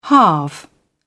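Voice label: "halve", British English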